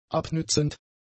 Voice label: "abnützend", German; adjectives

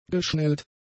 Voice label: "geschnellt", German; adjectives

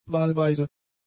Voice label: "wahlweise", German; adjectives

